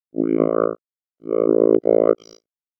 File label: VOICEFX ELCTR 140; Techno2